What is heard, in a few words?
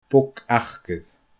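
"bog acair", Scottish Gaelic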